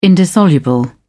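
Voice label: "indissoluble", British English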